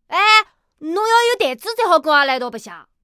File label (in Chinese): 小男孩